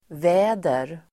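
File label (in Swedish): "väder", Swedish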